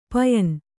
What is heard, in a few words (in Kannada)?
"ಪಯಣ", Kannada